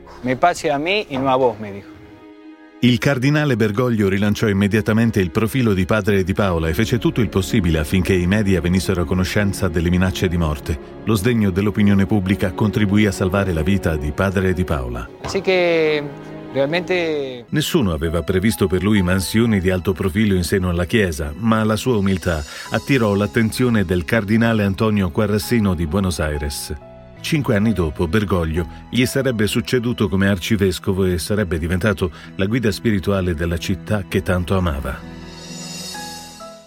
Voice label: Documentary; papa Bergoglio